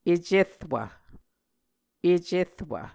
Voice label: "ecerwv", muscogee